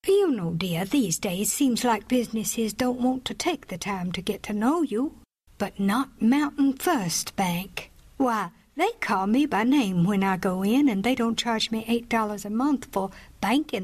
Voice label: Voiceover